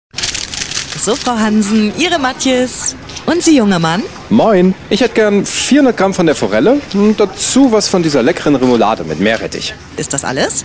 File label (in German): radiospot; relikampagne